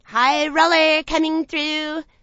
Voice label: "hi roller comin thru", commentators; Gutterball 3